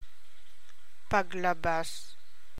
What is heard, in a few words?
TAGALOG